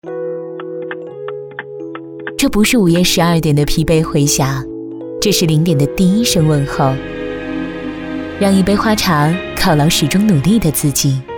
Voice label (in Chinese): 女 宣传片 气质女声 晚间类